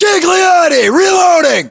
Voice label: inform reloading, US

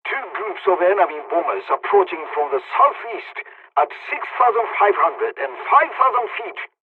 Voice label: dialogs turkish; War Thunder

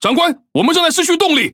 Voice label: Speech Defective Modules Engine Damaged, ZH TW; World Of Warships

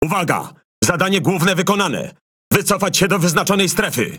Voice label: MAIN TASK PROGRESSED, Languages PL; PVE

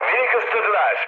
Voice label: aircraft destroyed, mood med, speech tur

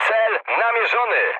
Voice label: near waypoint mood med, speech pol; War Thunder

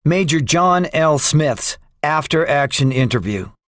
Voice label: debriefing, english; guadalcanal; War Thunder

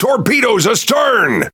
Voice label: Pirate Speech Alarms Torpedo Back; World Of Warships

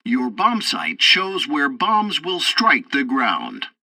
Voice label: tutorial assault bombing, english; War Thunder

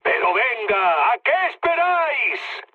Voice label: attack position, mood med, speech; War Thunder

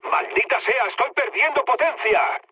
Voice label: damaged engine, mood low, speech; War Thunder

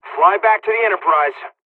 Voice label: evac, dialogs hungarian; midway us; War Thunder

